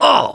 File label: hurt minor, survivor voice mechanic